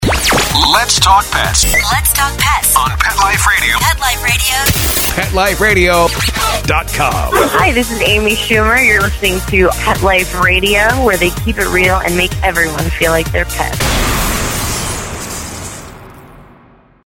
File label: Generic Sweeper; PetExpo